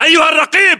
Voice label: rank sergeant, localized english battlechatter